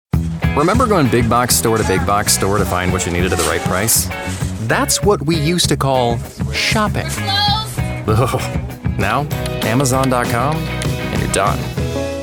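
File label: commercial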